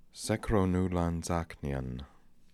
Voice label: "Sekronoolanzaknian", pronounciations; Fourth Way; Beelzebub

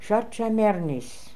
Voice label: "Shat Chai Mernis", pronounciations; Fourth Way; Beelzebub

